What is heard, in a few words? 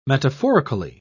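"metaphorically", English, British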